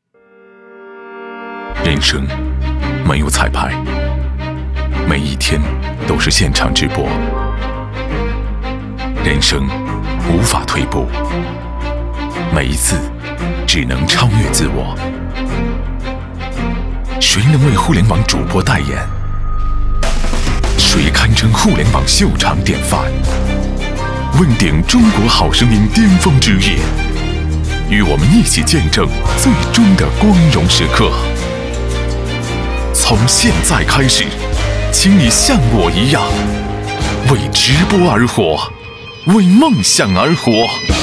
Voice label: 男 形象片 节目

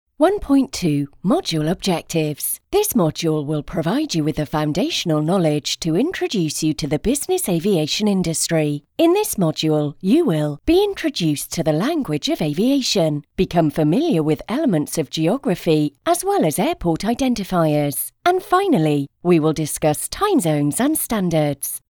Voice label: 外籍英女 民航知识网课

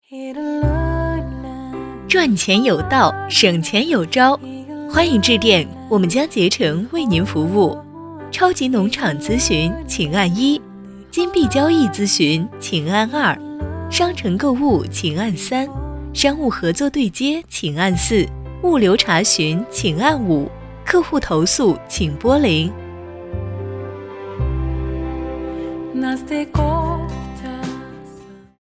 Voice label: 女 彩铃 导航选项

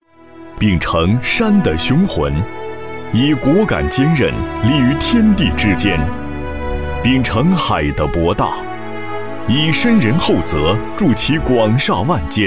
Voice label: 男 专题; 一带一路建设

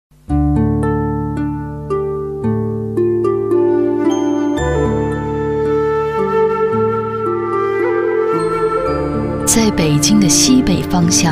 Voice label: 女 纪录片; 在北京的西北方向

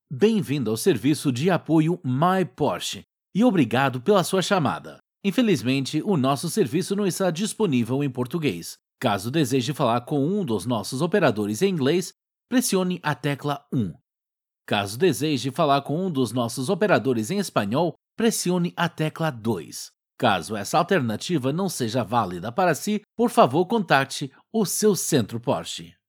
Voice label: 外籍 葡萄牙语 男; IVR Sample PTBR

